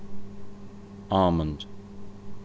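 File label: "almond", British English, male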